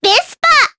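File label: Vox, voices, Japanese; kingsraid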